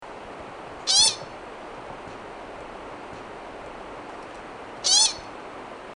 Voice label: Little Lovely Voice